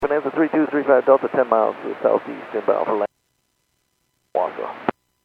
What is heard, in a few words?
Airports 122.7, Radio; KSTE